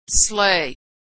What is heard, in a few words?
"sleigh", pronunciation; Homophones